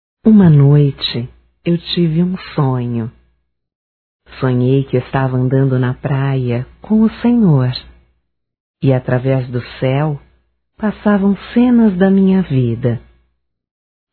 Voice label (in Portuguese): Pegadas na Areia Reflexão Feminino, Telemensagens